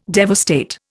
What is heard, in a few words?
"devastate", Indian